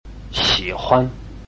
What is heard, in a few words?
"xi3huan5", Chinese